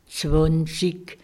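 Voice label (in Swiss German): "zwànzig", Alsatian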